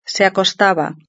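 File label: "se acostaba", Spanish; verb-conjugator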